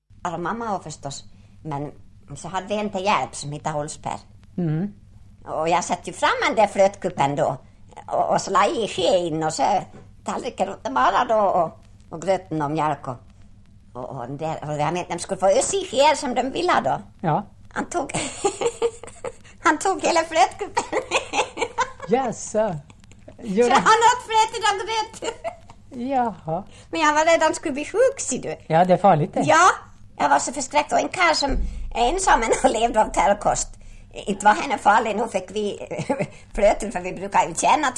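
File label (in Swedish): Ål Dalarna, dialekter; Folkminnen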